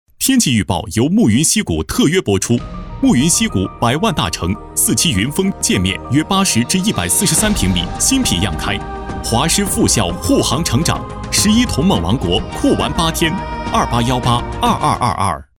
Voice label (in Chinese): 男 广告; 牧云溪谷